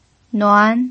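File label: "nuan" Mandarin Chinese, second tone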